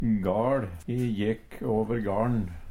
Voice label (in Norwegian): "gaL", Numedalsmål; Substantiv hankjønn; E jekk åver garn.